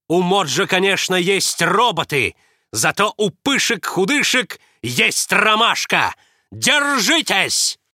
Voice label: narr